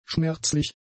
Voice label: "schmerzlich", German; adjectives

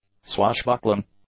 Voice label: "swashbuckling", English; adjectives

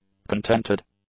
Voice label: "contented", English; adjectives

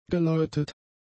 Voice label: "geläutet", German; adjectives

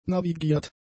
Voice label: "navigiert", German; adjectives

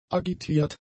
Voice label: "agitiert", german; adjectives

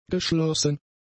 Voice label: "geschlossen", German; adjectives

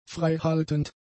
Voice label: "freihaltend", German; adjectives

